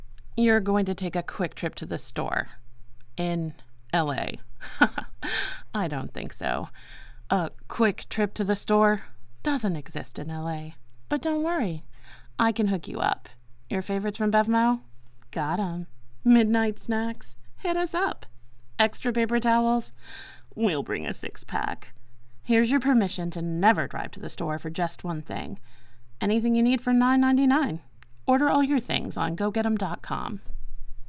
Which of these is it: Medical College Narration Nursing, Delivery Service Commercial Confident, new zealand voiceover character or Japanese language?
Delivery Service Commercial Confident